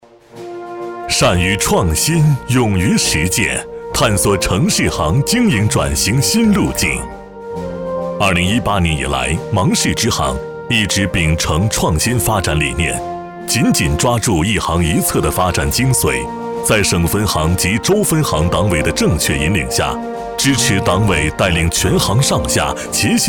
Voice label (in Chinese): 男 专题 自然大气; 农业银行芒市支行